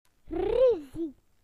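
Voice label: "argument", British English; PictureDictionary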